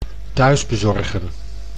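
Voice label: "thuisbezorgen", Dutch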